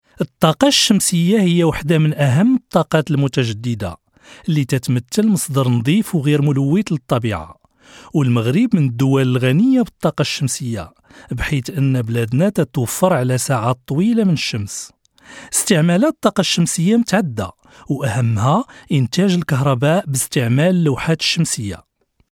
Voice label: marokanisch, arabisch, sprecher

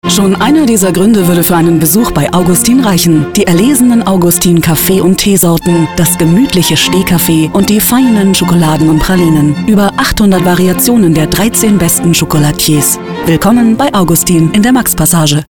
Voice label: deutsch frauen werbung; sprecher

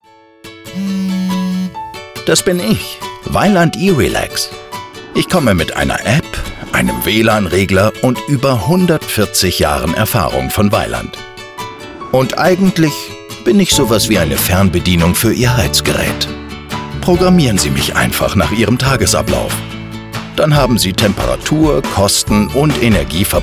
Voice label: de produktfilm, deutsch maenner; sprecher

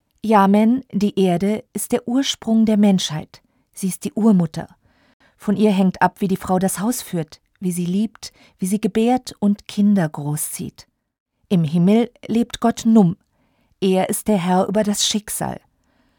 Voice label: lesung, deutsch frauen; sprecher

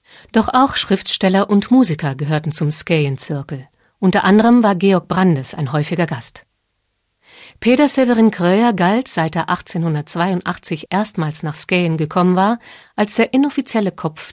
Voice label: de Audioguide, deutsch frauen; sprecher

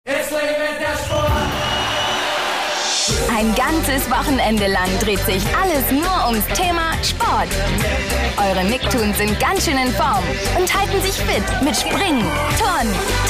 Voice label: Teaser, deutsch frauen; sprecher

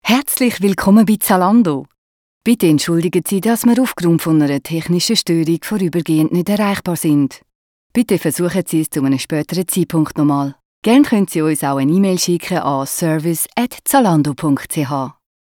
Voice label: ch telefon, sprecher schweizerisch

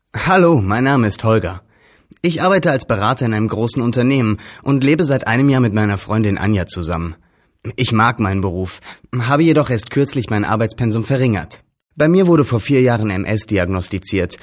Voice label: de image, deutsch maenner; sprecher

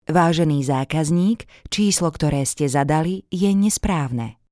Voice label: slowakisch telefon

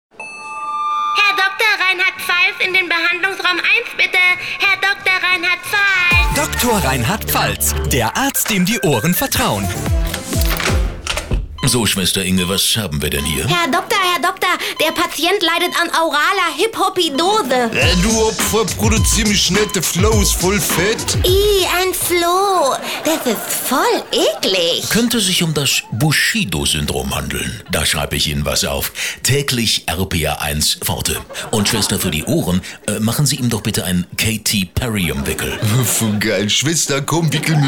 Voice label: Werbung

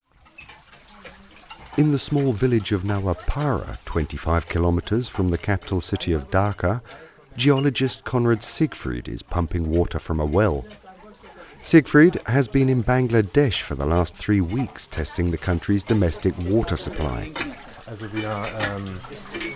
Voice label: gb doku, englisch; sprecher

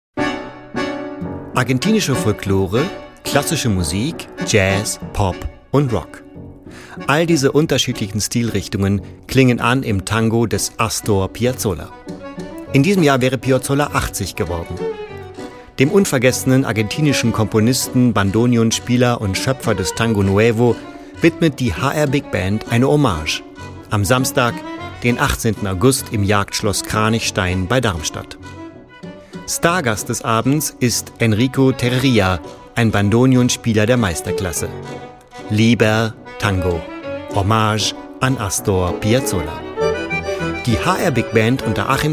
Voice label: feature, deutsch maenner; sprecher

